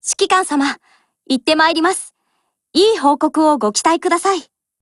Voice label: OPERATION BEGIN JP; MP40